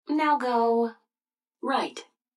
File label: GPS now go right; Blind Drive